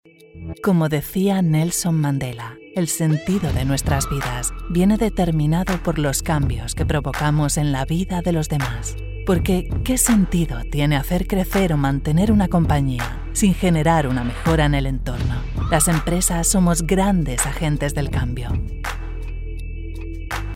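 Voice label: CASTILLIAN SPANISH INSPIRATIONAL, Spanish Commercial Voiceover, Female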